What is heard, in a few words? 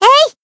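Voice attack, Japanese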